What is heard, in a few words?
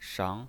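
"shang", Mandarin Chinese pinyin, second tone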